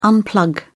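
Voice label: "unplug", British English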